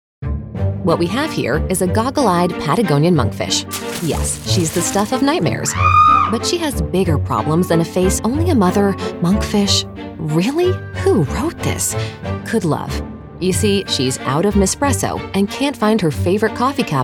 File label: explainer